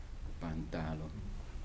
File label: "pants", Kibembe